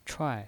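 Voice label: "chuai", Mandarin Chinese pinyin voice, fourth tone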